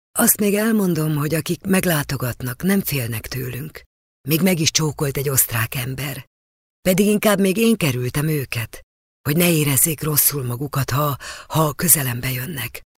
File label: hungarian female